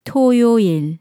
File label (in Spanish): sabado en coreano